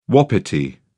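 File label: "wapiti", English, British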